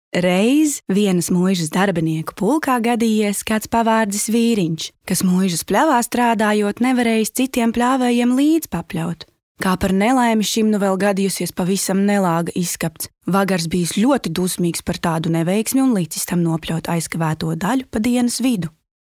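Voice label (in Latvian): Latvian Pasaka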